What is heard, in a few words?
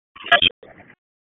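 Dispatch, p25; WSO DISP 1; wake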